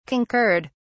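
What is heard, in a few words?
"concurred", British English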